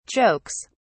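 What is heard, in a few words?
"jokes", British English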